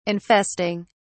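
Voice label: "infesting", British English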